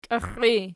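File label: "kixxii" nominative, Archi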